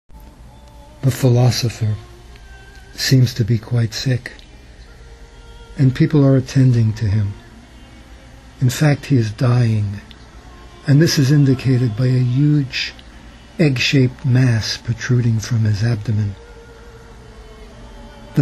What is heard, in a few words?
dream recitation